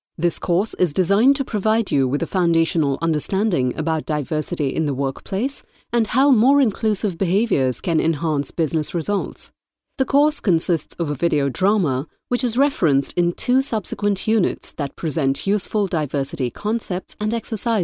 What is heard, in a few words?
eLearning